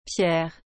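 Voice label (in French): "pierre", French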